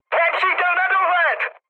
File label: enemy detected, speech cze, mood high; War Thunder